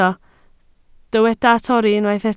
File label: "torri", Welsh